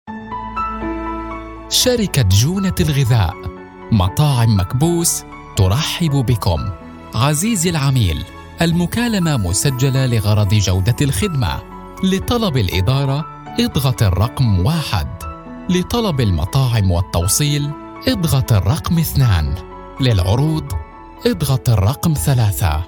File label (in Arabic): رد آلي موسيقى